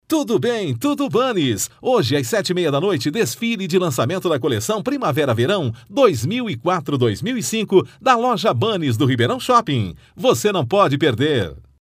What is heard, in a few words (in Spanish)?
bunny portugues